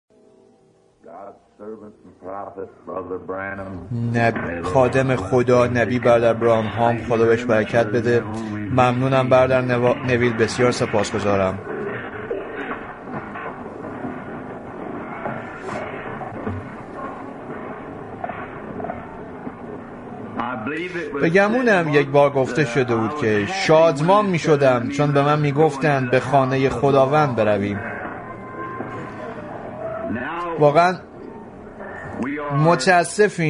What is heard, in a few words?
Farsi Voice Over; The Revelation Of Jesus Christ